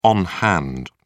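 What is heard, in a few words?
"on hand", English, British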